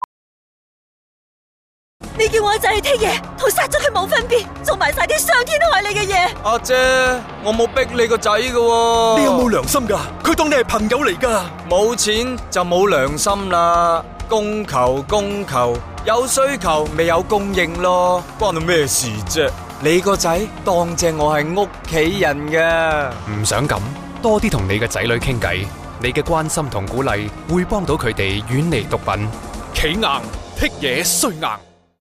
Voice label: cantonese; parents; radio